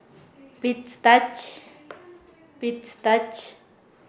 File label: Nasa Yuwe; joven adulto